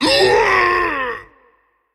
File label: Male Stone Giant Death